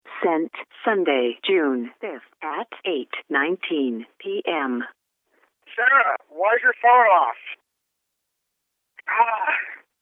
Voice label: voicemail